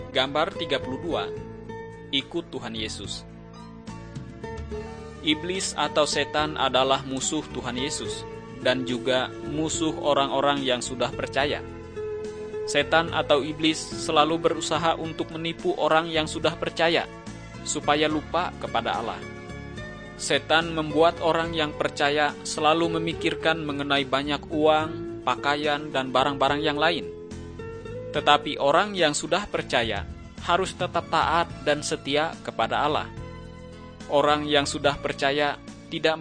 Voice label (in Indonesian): kabar baik good news bahasa indonesia